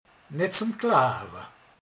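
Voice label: "hihetetlen", Hungarian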